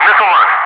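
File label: "missile launch", English, Wingman; Messages; DCS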